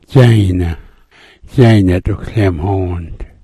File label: "dzayna", smalgyax